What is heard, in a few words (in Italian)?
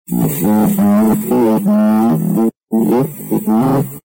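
"argento", Italian; Argento non sia padre, un Re ce s’ha!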